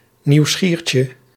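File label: "nieuwsgiertje", Dutch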